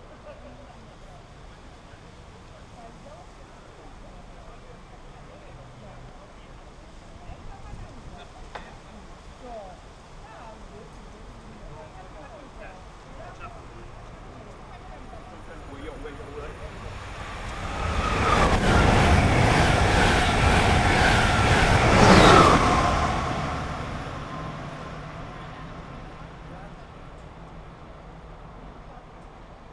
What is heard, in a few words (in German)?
Achtung an Gleis 5 ein Zug faehrt durch, Ansagen